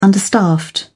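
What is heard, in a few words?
"understaffed", English, British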